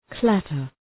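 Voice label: "clatter", English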